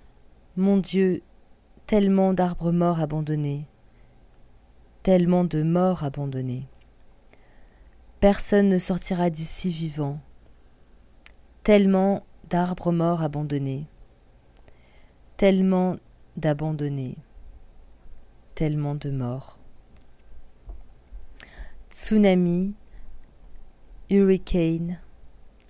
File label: green alert, read in french